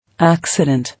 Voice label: "Accident", English

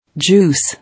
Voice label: "Juice", English

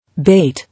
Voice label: "Bait", English

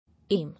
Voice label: "Aim", English

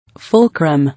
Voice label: "Fulcrum", English

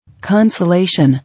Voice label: "Consolation", English

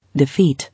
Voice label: "Defeat", English

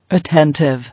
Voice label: "Attentive", English

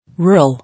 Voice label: "Rural", English

